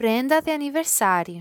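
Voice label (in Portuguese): "Prenda de Aniversario", Brazilian Portuguese; happy birthday in portuguese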